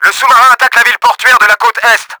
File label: ATTACK, french; Battle Engine Aquila; XBOX